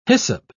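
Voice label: "hyssop", British English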